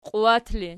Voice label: "qiuwat", Archi